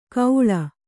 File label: "ಗೌಳ", kannada